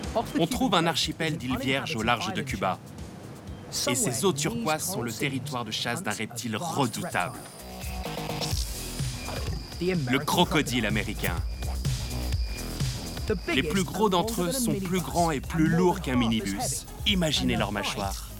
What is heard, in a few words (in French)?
voice over